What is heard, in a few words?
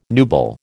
"nubile", American